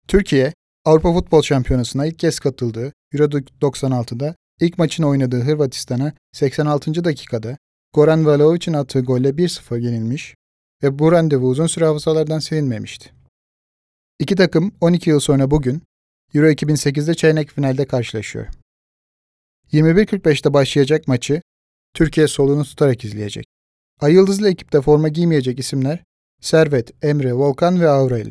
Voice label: turkish m narration, Turkish voice